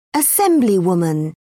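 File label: "assemblywoman", British English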